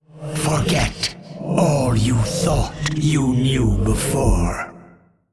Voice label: Male Old God; Cthun